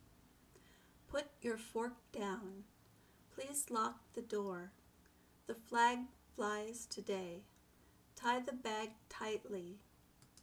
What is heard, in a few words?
k and g sentences correct